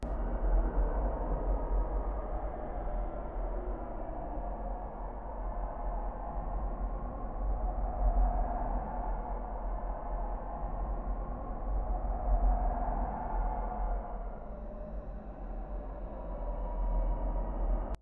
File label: Hide Whispers; Triggered if the player stands inside a closet for too long.